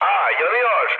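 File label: Turkish on fire, mood low, speech; War Thunder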